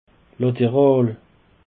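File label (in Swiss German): "laterall", Alsatian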